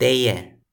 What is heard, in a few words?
"teje", Toki Pona